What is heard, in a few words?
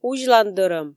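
"узьлан дӧрӧм" Komi, female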